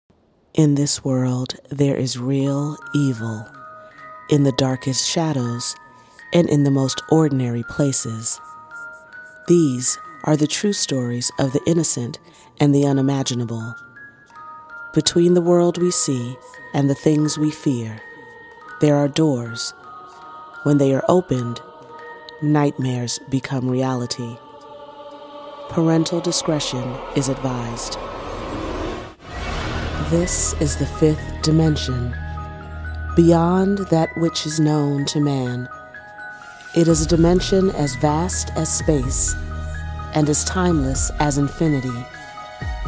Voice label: Narration